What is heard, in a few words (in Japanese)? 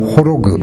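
"horogu", 南山形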